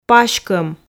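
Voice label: "паськом", Komi, female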